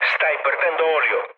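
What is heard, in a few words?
Italian damaged oil mood low, speech; War Thunder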